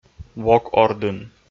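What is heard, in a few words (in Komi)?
"вок ордын", Komi, male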